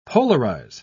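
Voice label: "polarise", British English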